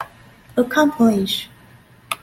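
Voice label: "accomplish", Taiwan, female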